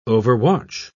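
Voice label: "overwatch", English, British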